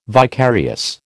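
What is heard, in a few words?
"vicarious", American English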